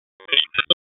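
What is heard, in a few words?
Police Spec Ops Tac, radio; Wake; p25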